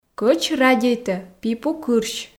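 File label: Komi sentences, female; кӧч радейтӧ пипу кырсь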